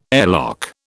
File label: "airlock", British